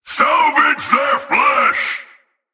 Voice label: Male Chokepoint Line, dialogue